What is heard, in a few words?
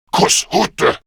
Player Spotted, Male; Warframe; Grineer Male; Battle Barks